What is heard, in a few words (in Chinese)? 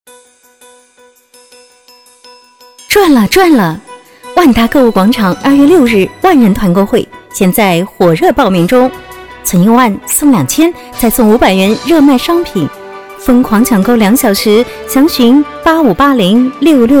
促销 疯狂购物, 国语女声配音; 优雅; 万达